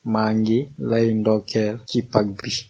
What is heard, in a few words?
easter, wolof